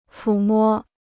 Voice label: "抚摸", Chinese